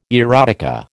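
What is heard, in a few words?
"erotica", American English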